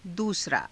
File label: "duusaraa", Hindi